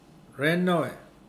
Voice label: today, Mokilese